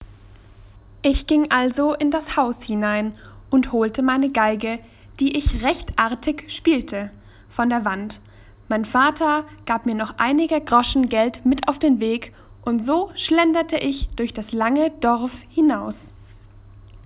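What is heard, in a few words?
German narrowband, speech; de-DE NarrowbandModel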